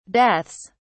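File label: "deaths", British English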